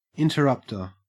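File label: "interrupter", Australian English; pronunciations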